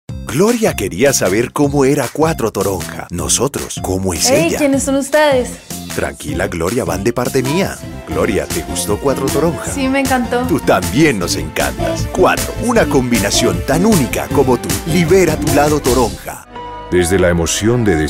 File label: spanish